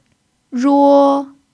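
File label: "ruo1", Chinese; pinyin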